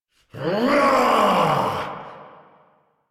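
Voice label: Attack, English; Warframe; Captain Vor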